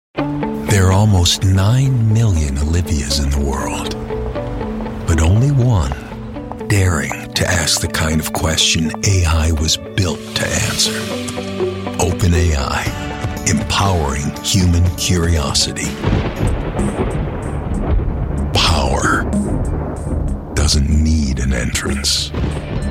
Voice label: Commercial